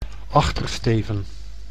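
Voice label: "achtersteven", Dutch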